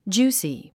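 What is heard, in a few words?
"juicy", English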